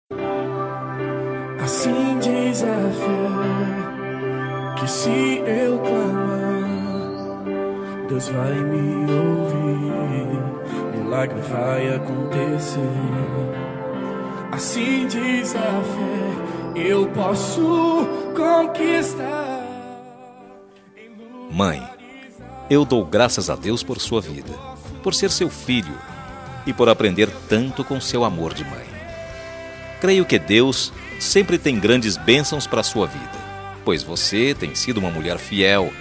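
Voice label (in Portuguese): Aniversário Mãe Evangélico Masculino, telemensagem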